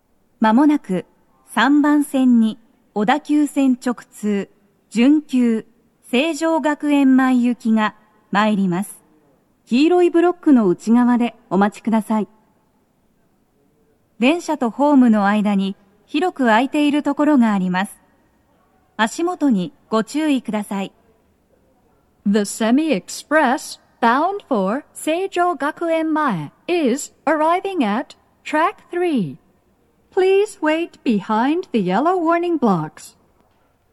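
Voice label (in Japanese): 接近放送 女声, 準急 成城学園前行; 放送は「準急 成城学園前行」です。; tokyometro chiyodaline hibiya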